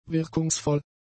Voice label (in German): "wirkungsvoll", German; adjectives